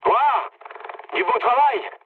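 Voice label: French trick mood med; War Thunder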